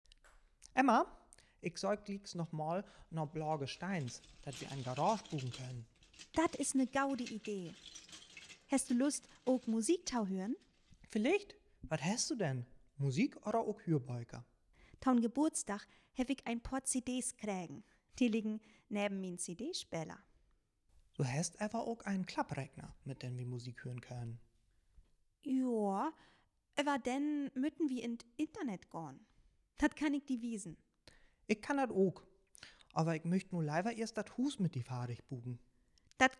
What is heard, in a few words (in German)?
Dialog, niederdeutsch